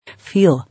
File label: "Feel", English